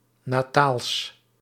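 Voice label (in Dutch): "nataals", Dutch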